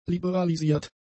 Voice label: "liberalisiert", German; adjectives

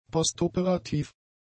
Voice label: "postoperativ", German; adjectives

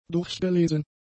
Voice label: "durchgelesen", German; adjectives

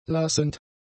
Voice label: "lassend", German; adjectives

